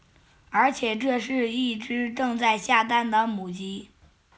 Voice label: Chinese Children Speech, Mobile phone

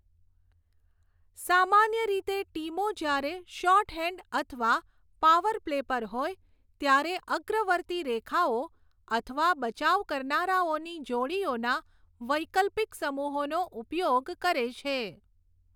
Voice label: Gujarati; indic-seamless

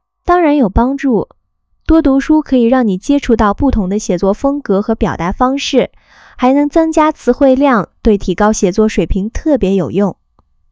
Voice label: zh female; KE-Omni

